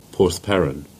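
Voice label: "Perranporth", Cornish; place-names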